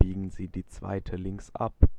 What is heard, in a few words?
2nd Left, German male